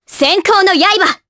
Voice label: Vox Skill, jp; Reina; kingsraid